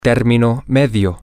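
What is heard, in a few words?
male, spanish; termino Medio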